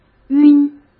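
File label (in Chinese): "晕", Mandarin Chinese; chinese-flashcards; hsk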